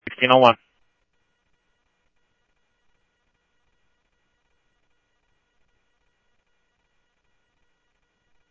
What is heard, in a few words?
Scottsdale PD Scottsdale Police, scanner audio